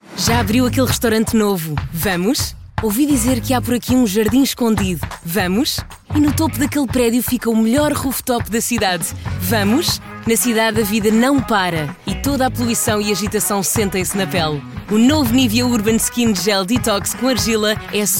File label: SPOT